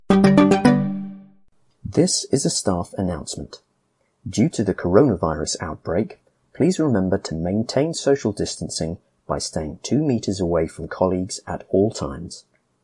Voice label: Coronavirus social distancing with jingle male voice